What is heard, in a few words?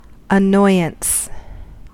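"annoyance", American English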